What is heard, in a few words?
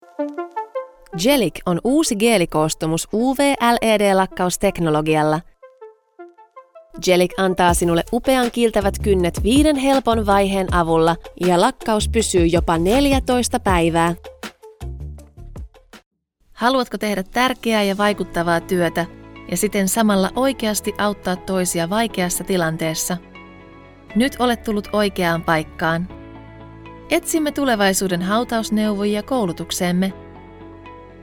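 Finnish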